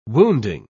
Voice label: "wounding", pronounce